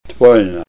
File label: cottonwood, Ninilchik Russian; Kibrik-Bergelson